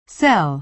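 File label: "cell", American English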